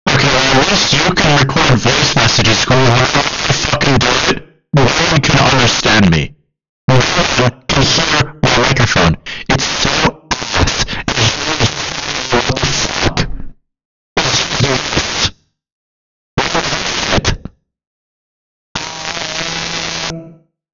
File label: voice message Effects